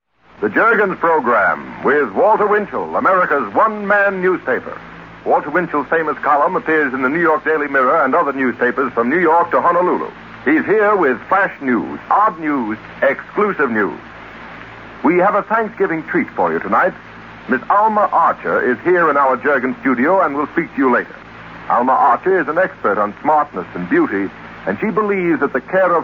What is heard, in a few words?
Vintage Commercials; Jergens Lotion